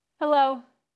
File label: Voice Acting es